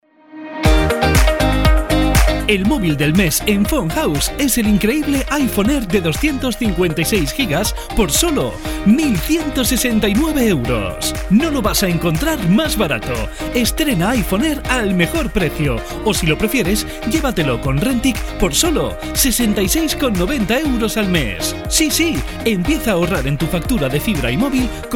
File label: ESP; PHONE HOUSE; IPHONE AIR